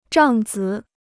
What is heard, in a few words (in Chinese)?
"帐子", Chinese